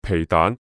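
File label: "皮蛋" Cantonese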